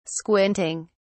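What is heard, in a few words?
"squinting", British English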